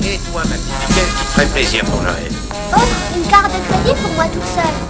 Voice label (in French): fillette